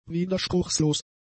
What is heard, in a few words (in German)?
"widerspruchslos", German; adjectives